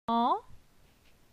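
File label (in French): "Zan", French; phonetique; la syllabe an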